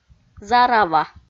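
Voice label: "зарава", Komi-Zyrian, female; audio dict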